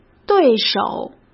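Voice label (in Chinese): "对手", Mandarin Chinese; chinese flashcards; hsk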